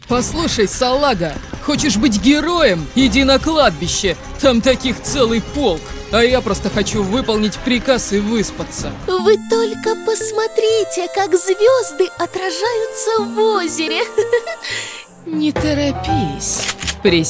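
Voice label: Russian Characters; GoLocalise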